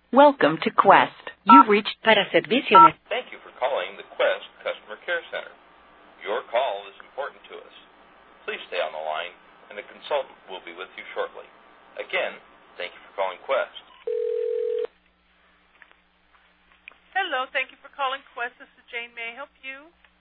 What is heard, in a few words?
freecall, Prank Calls; Phone Losers of America Media Pack 2014; Miscellaneous Pranks